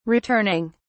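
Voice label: "returning", British English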